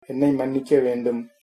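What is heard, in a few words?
sorry, Tamil, male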